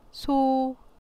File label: cow, korean; Zodiac Signs